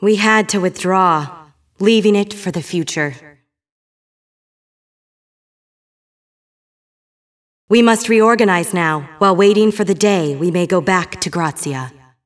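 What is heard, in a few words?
cinematic voice, Spanish; lineage2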